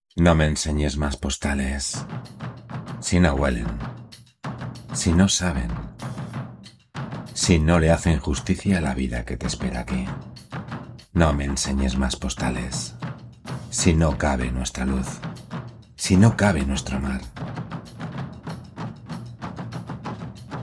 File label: spanish podcasts, spanish voice actors